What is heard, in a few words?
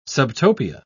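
"subtopia", British English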